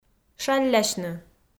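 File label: "шаллясьны", Komi, female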